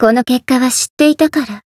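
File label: Voice win, Japanese; radica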